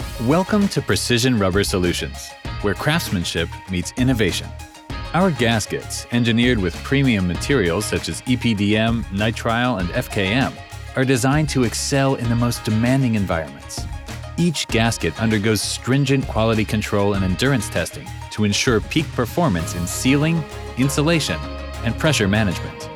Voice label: Corporate; Precision Rubber Solutions